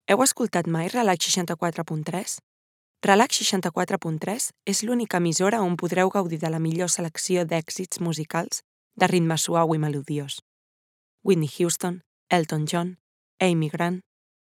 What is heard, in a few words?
catalan relax